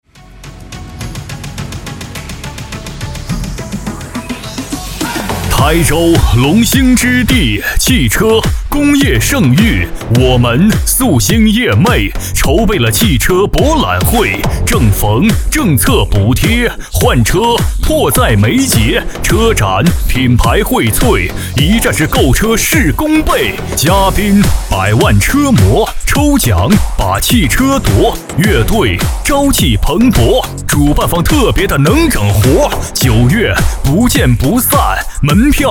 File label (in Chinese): 男, 喊麦, 车展; 台州车展